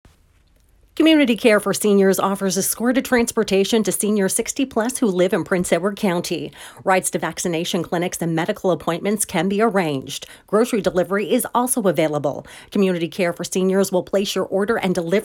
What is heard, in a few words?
commercial; Community Care For Seniors